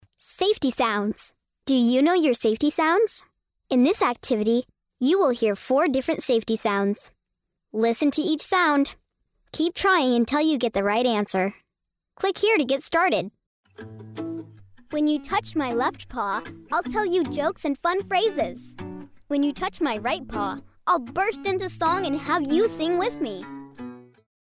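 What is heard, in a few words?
American English, child; voice over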